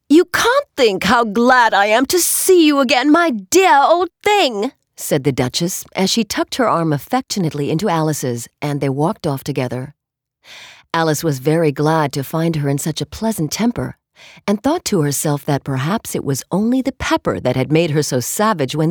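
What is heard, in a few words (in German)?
US English, Schauspiel Variabel; Fremdtake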